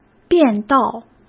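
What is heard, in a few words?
"便道", Mandarin; chinese flashcards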